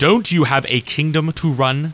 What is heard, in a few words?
annoyed; war2; humans; basic human voices